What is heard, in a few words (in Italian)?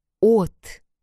O in russo